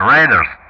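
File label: "radars", English; Ka-50; Player Target; DCS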